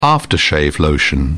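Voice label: "aftershave", British English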